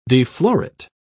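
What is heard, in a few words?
"deflorate", British English, dictionary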